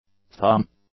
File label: "thumb", English; nouns